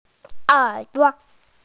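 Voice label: "er duo" Chinese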